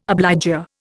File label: "obligor", Indian